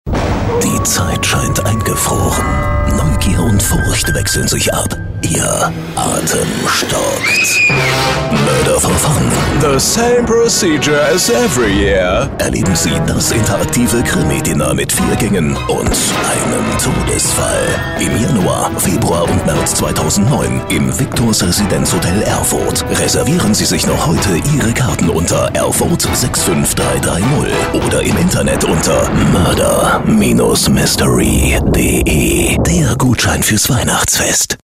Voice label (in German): Funkspot; Murder Mystery Dinner